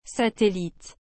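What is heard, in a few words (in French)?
"Satellite", French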